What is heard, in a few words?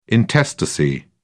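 "intestacy", British English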